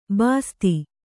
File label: "ಬಸ್ತಿ", Kannada